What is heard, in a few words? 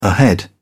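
"ahead", British English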